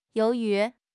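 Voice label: "you2 yu2", Mandarin Chinese; HSK4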